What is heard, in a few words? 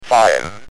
"5", UK Speech